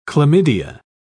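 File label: "chlamydia", English, British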